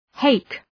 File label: "hake", agglika